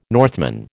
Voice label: "Northman", American

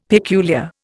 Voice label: "peculiar", Indian; Dictionary

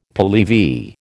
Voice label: "Pehlevi", American

